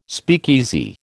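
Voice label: "speakeasy", American English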